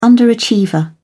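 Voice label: "underachiever", British English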